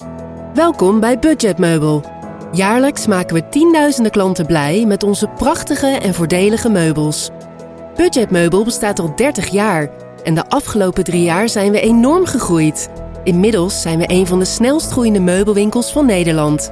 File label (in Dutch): Narratieve producties bedrijfsfilms, voice-over